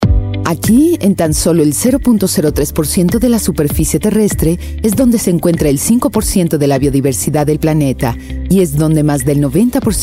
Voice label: spanish voice over female narrator

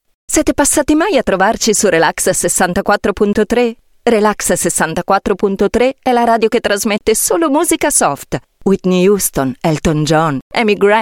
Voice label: italian voice over female narrator

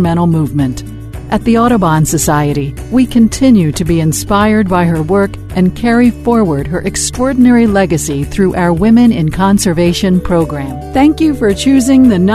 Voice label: english american voice over female narrator

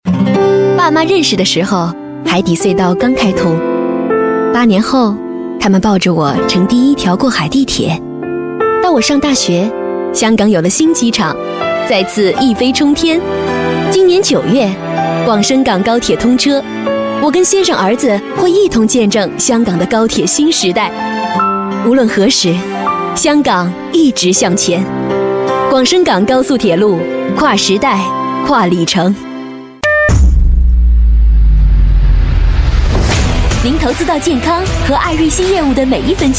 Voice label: chinese voice over female commercial

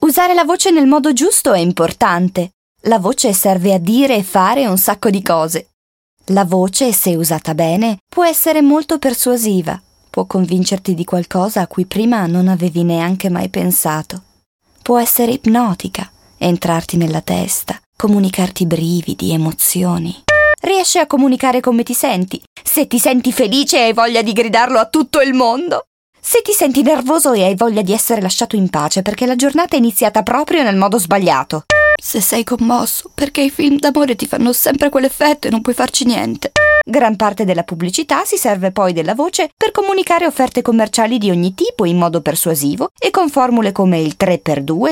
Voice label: italian voice over female narrator

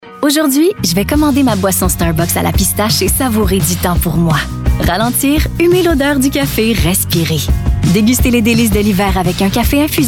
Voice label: french voice over female commercial

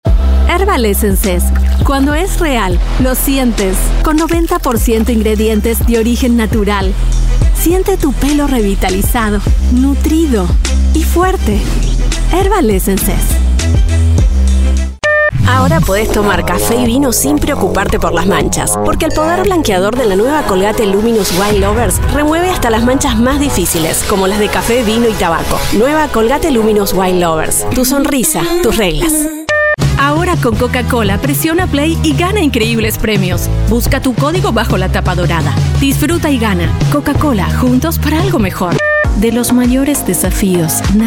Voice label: spanish voice over female commercial

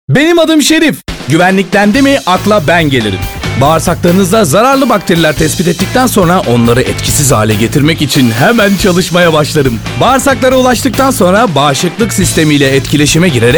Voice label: elearning; probiyotik serif

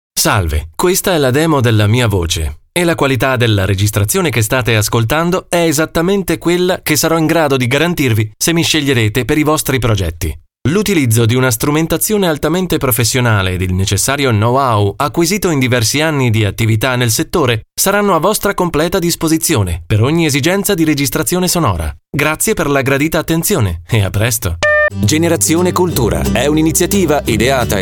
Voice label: italian voice over male commercial

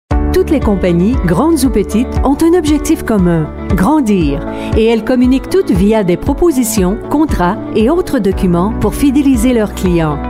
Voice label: french voice over female narrator

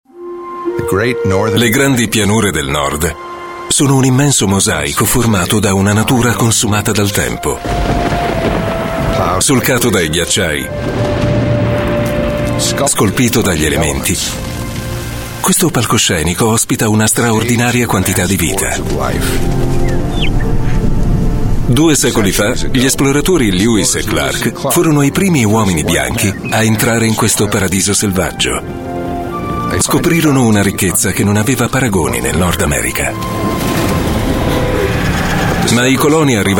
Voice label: italian voice over male documentary

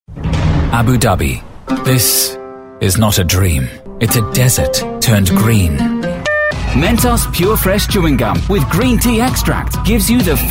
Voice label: english british voice over male commercial

